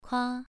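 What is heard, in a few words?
"kua", Mandarin Chinese, second tone, pronunciation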